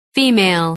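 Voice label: "female", English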